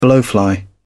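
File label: "blowfly", British English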